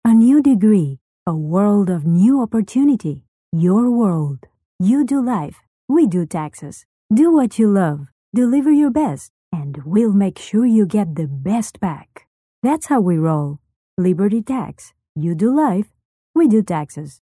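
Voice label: Spanish, Latin American, female, English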